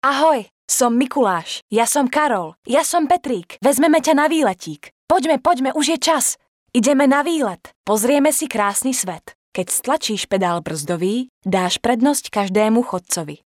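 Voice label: Female Slovak drama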